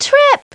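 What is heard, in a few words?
"trip", English; Treasure Galaxy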